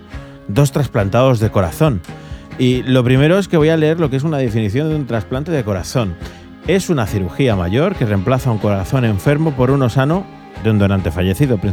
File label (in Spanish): entrevista, radio; trasplantados; Radio Sirena COPE; entrevista mediodia radio sirena